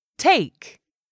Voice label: "take", English; 3000 words

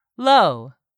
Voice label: "low", English word; 3000 words

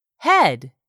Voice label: "head", English; 3000 words

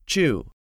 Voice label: "CHEW", English; 3000 words

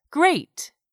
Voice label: "great", English; 3000 words

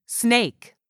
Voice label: "SNAKE", english; 3000 words

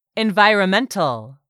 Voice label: "environmental", English; 3000 words

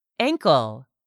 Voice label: "ankle", English; 3000 words

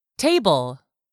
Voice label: "TABLE", english; 3000 words

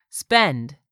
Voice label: "SPEND", english; 3000 words

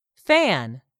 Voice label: "fan", English word; 3000 words

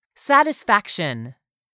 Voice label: "satisfaction", english; 3000 words

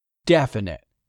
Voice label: "DEFINITE", english; 3000 words

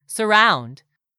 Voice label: "surround", English; 3000 words

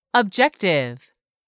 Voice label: "objective", English; 3000 words

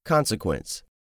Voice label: "consequence", english; 3000 words

